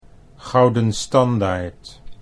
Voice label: "Gouden Standaard", Dutch

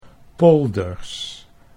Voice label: "polders", Dutch

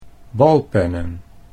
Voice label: "balpennen", Dutch

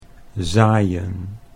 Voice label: "zaaien", Dutch

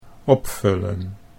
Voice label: "opvullen", Dutch

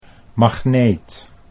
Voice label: "magneet", Dutch

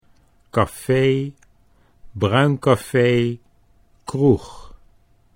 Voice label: "cafe bruin cafe", Dutch

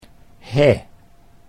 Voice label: "heh", Dutch

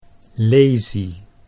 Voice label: "laesie", Dutch

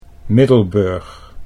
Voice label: "Middelburg", Dutch

